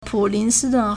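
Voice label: "pu3lin2si1dun1", Mandarin Chinese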